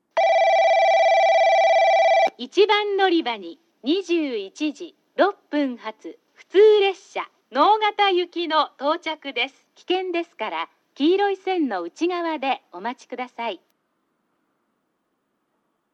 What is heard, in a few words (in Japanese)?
1番のりば接近放送（普通 直方行き）; 1 折尾・直方方面 / （福北ゆたか線）; スピーカーがJVCラインアレイに取り換えられています。